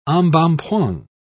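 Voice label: "embonpoint", British English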